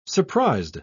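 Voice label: "surprised", English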